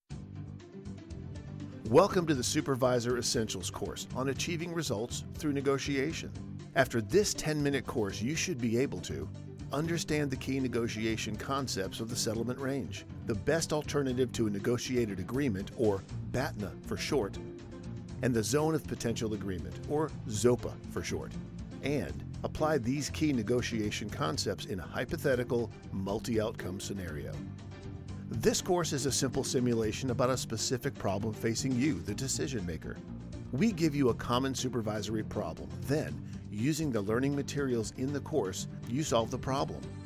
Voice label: eLearning